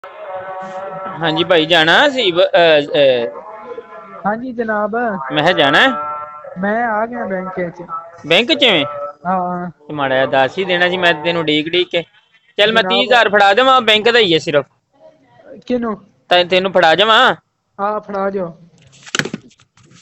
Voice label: Call recording